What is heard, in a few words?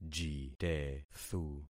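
"jitethu", concatenated syllables; Affixing experiment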